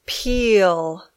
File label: "peel", English